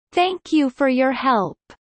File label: low; studying abroad